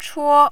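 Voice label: "chuo", Mandarin, tone 1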